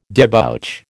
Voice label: "debouch", American